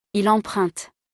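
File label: "il emprunte", French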